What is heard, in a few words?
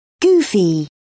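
"goofy", British English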